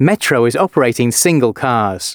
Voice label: announcements, male

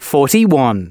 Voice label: announcements male

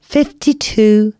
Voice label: announcements female

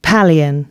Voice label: announcements, female

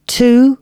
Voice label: announcements female

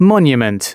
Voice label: announcements male

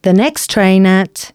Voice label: announcements, female

